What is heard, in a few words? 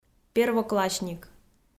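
"первоклассник", Komi, female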